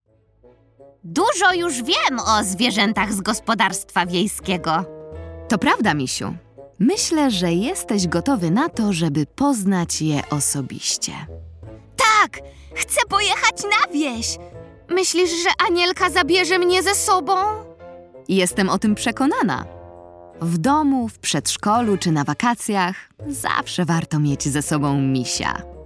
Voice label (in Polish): narracja; czasopismo mis; lato; pozegnanie rozmowa